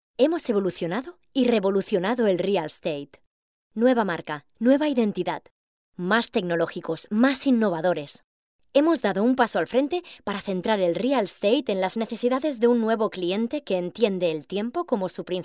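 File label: Spanish Spain, female, voice over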